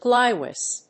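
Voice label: "Gliwice", English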